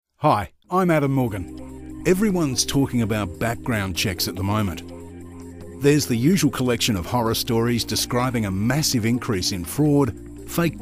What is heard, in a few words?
eLearning